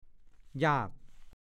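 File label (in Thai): "ยาก" ภาษาโคราช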